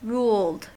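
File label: "ruled", American English; pronunciations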